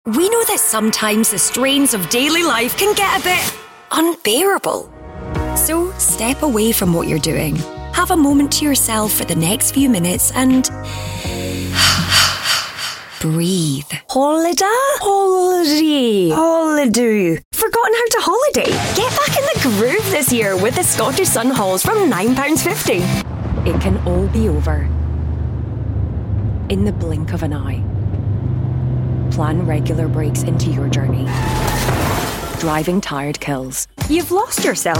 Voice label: commercial

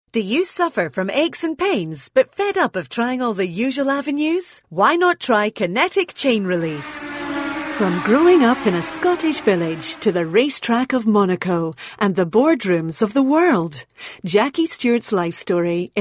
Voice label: corporate on-hold

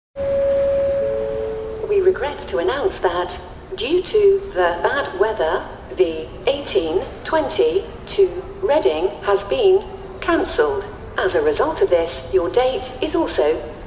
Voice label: rail ad; asda

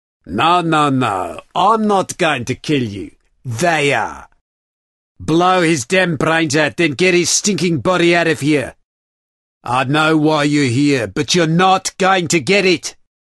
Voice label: afrikaans parody accent

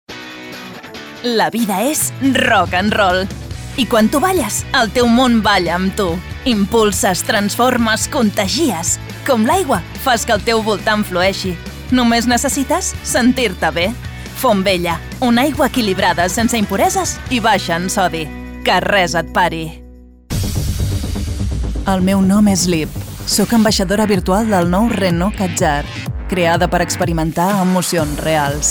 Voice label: catalan commercial

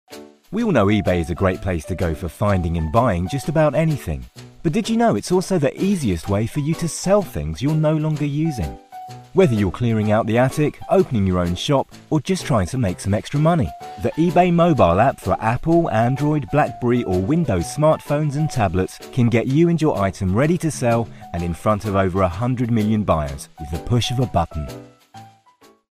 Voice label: explainer; ebay

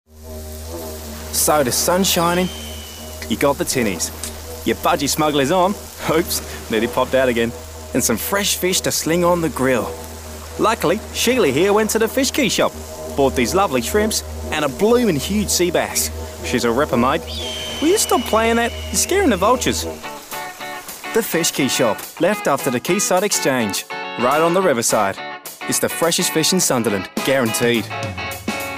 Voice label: australian accent

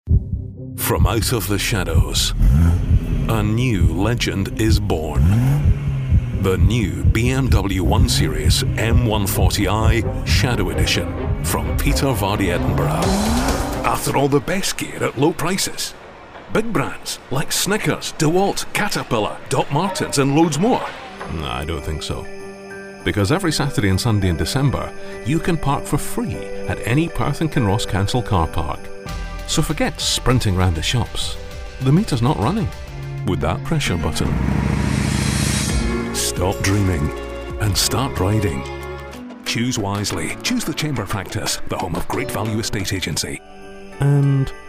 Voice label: commercial montage

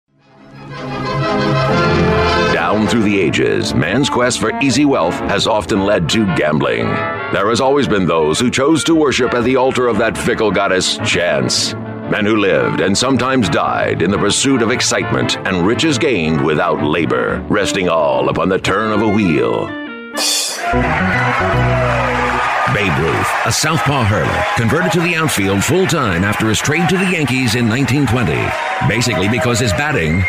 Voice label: deep american, news reel

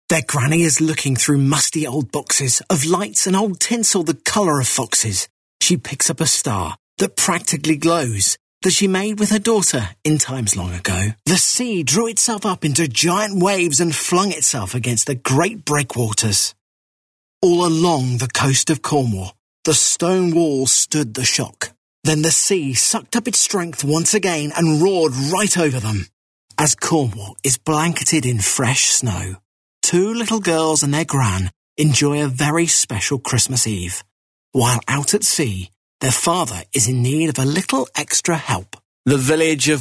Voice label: narration

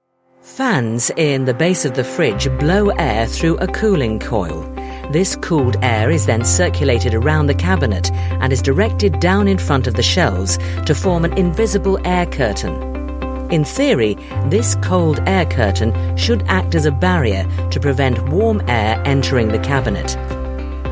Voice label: corporate technical

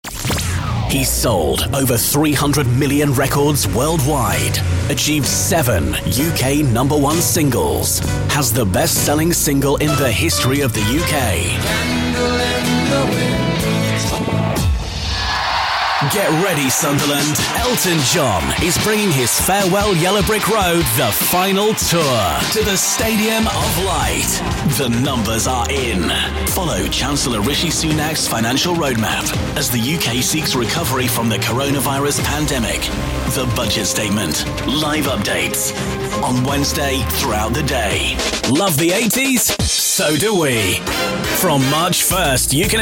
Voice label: imaging

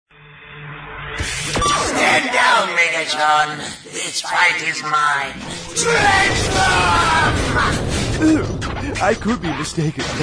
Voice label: canadian anime

